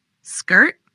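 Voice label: "Skirt", pronunciation; clothes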